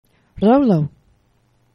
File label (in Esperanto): "rolo", esperanto; geneva